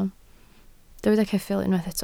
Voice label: "ceffyl", Welsh